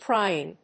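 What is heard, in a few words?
"prying", English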